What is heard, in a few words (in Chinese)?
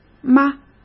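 "嘛", Mandarin Chinese; hsk; chinese flashcards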